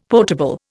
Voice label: "potable", Indian; Dictionary